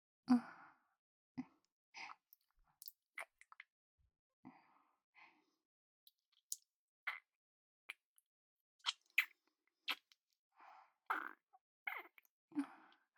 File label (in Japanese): お疲れ様のピロートーク; むっつり聖職者サキュバスに性癖が歪むほどグチャグチャにされる夜; ASMR